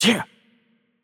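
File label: Greek attack light voice; bloom minigame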